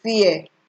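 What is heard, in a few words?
"fear", Nigerian Pidgin, female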